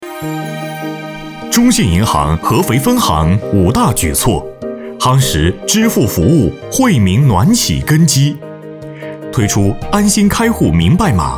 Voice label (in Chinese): 男 专题宣传 大气有力度 银行